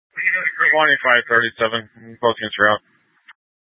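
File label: Sheriff Dispatch, Scanner audio recording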